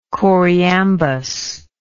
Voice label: "choriambic", English

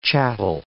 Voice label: "chattel", English

